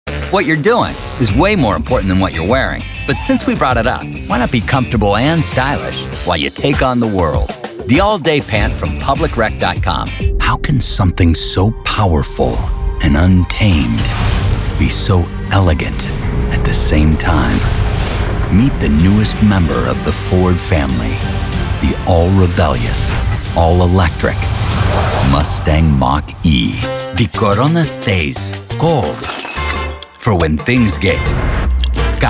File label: Commercials